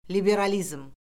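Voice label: "liberalizm", Azerbaijani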